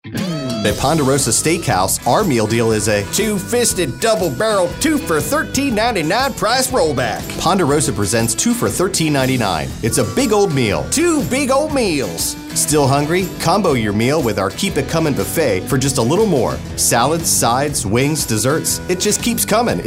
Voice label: announcer country cowboy